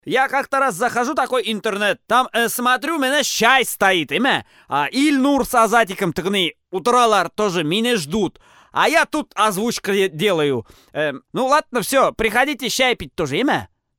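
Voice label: cheerful Tatar voice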